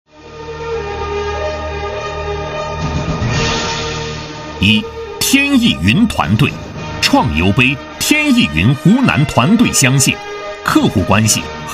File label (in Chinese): 男 大气宣传; 天翼云团队